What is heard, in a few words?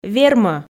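"вермӧ", Komi, female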